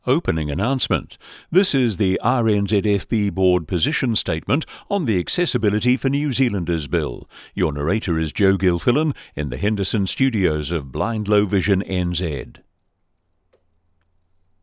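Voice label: opening announcement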